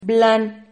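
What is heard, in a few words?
"blan", Spanish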